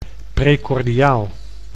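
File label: "precordiaal", Dutch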